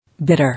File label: "Bitter", English